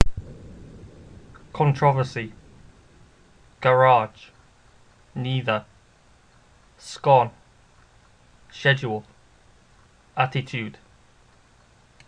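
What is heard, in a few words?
blackpool england male, accent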